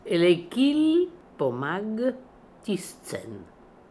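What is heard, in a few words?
"Elekilpomagtistzen", pronunciations; Fourth Way; Beelzebub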